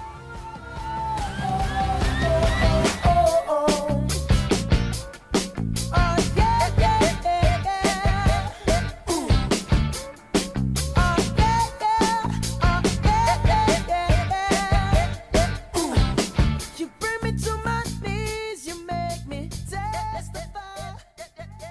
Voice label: CRIANÇA F; Aniversários; Aniversários diversos; fem

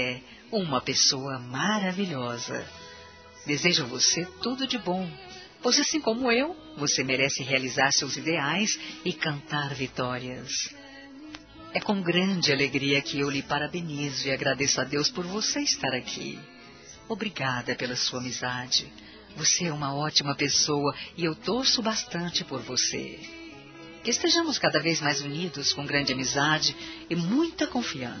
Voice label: FELIZ ANIV. F; Aniversários; Aniversários diversos; fem

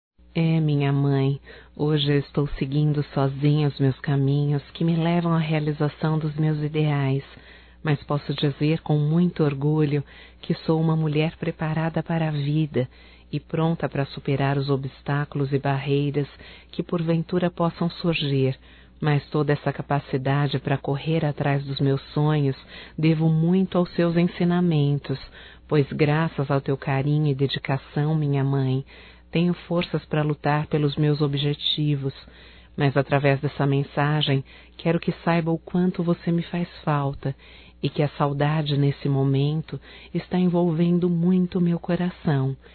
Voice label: SAUDADES MAE, Telemensagens, Só Voz, fem

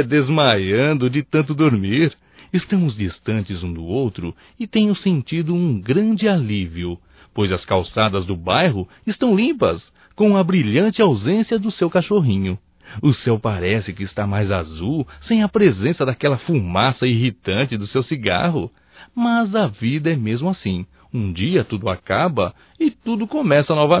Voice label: SAUDADES AMIGO GOZACAO, Só Voz, masc; Telemensagens; AMIZADE

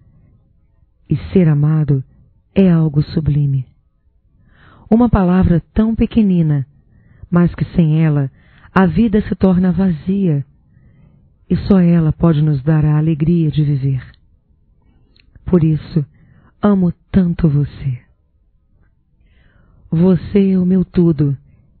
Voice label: O AMOR (F); Telemensagens 2017

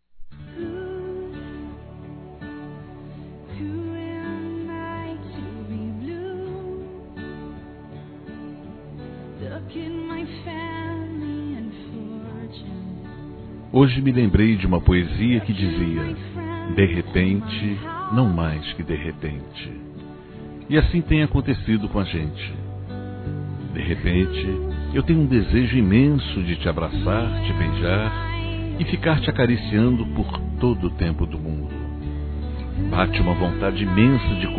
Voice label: DECLAR. DE AMOR, masc; Telemensagens; Declaração de amor; Telemensagens 2017

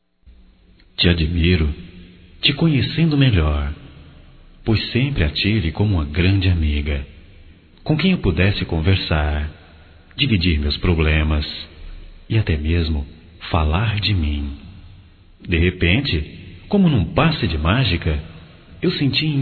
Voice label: Amizade Colorida Masculino, Só Voz; Telemensagens; Telemensagens 2017

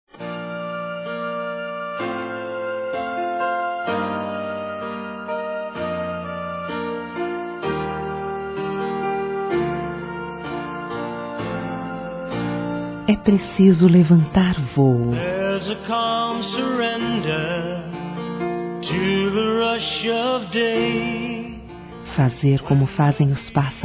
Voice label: Telemensagens, Dia Dos namorados, fem; as novas cores do amanhecer; Telemensagens 2017

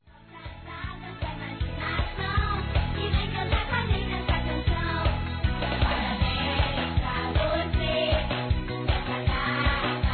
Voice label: NEUTRO PARABÉNS, Aniversário Infantil; Telemensagens

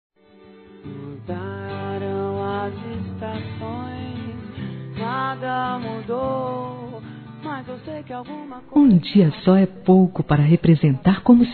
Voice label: FAMILIAR P MÃE F, Telemensagens, Dia das Mães; Telemensagens 2017

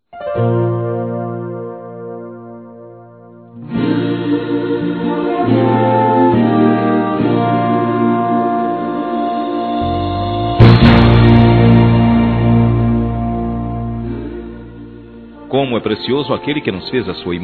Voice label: ANIV. EVANGÉLICO M

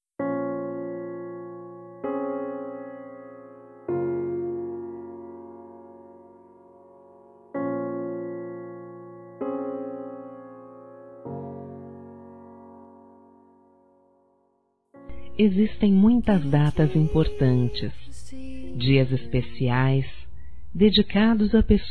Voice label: Dia das Mães Geral F; Telemensagens 2017

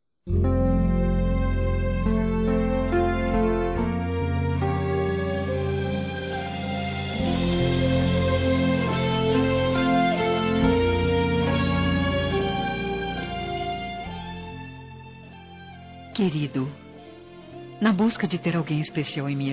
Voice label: ESPOSO EVANGELICA F; Aniversários; ANIVERSARIO romantico; fem

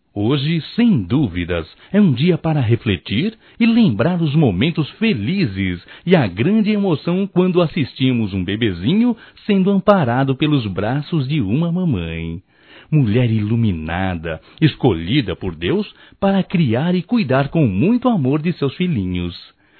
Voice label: Dia das Mães Geral Masculino; Telemensagens 2017

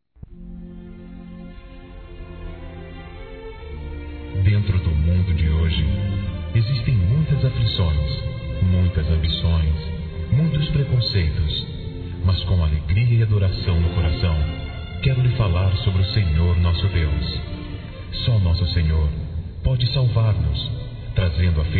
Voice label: EVANGÉLICA M, Telemensagens, Gospel, masc

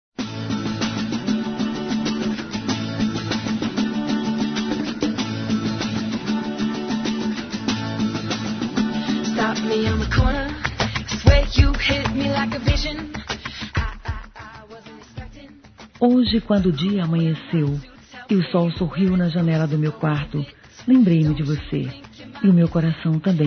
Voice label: Aniversário Romântico Suave F, Telemensagens, Fem; Aniv Familiar

